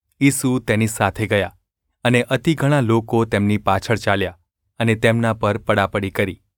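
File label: gu ulb mrk, Gujarati; mrk 5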